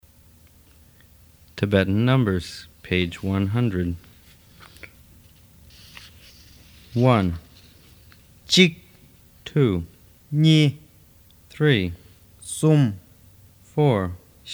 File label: Tibetan Numbers